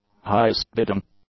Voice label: "highest-bidding", English; adjectives